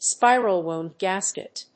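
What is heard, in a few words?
"spiral wound gasket", English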